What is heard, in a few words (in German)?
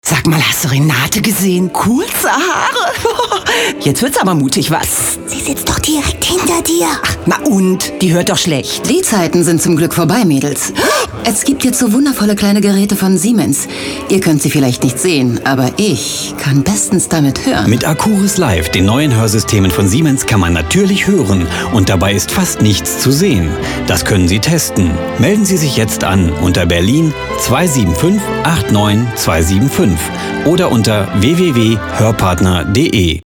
Gesundheitswesen Pharma Radiowerbung